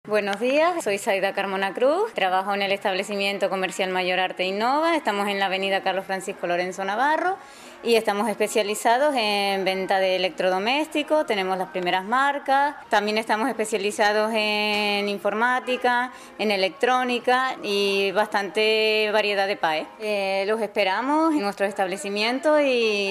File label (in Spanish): comercial; MAYORARTE